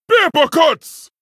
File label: Troll Emote Attack